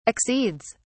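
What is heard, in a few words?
"accedes", English, British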